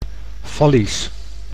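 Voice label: "fallisch", Dutch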